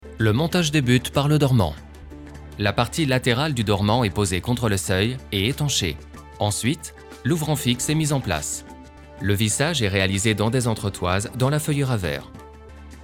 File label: explainer; inoutic